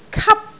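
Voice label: "kap1", Cantonese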